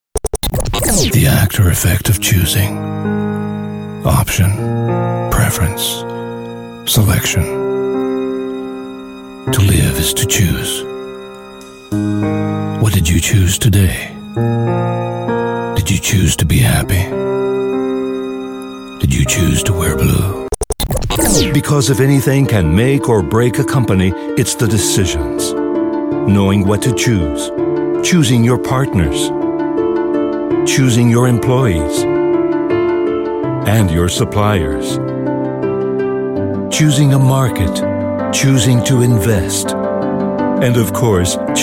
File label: uk, jingles; demo